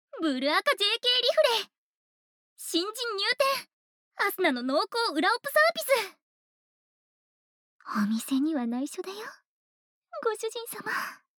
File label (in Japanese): タイトルコール